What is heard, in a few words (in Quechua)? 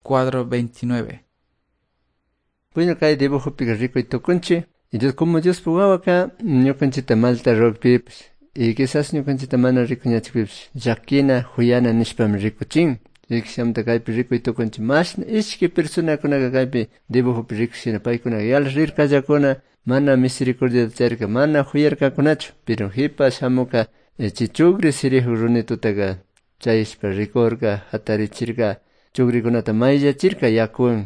good news quichua cayambe